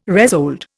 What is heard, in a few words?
"resold", Indian